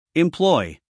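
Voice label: "employ", American English, male; everyone-can-use-english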